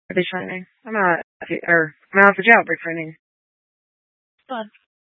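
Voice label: Dispatch, Scanner audio recording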